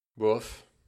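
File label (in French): "bof", French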